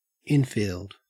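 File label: "infield", Australian English; pronunciations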